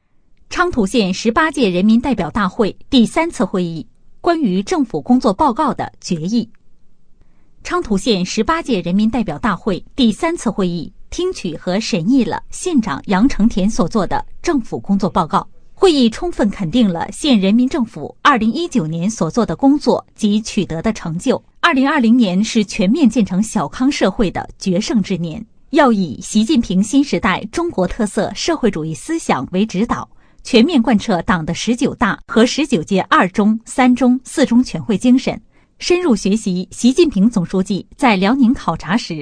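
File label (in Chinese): 女专题 新闻汇报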